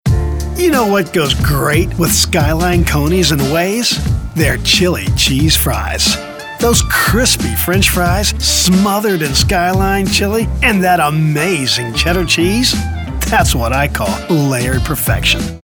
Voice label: warm, friendly neighbor tone that feels approachable and engaging; millennial, motivational, perky, professional, real, smooth, thoughtful, upbeat, warm; Skyline Coneys